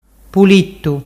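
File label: "pulittu", Ligurian dialect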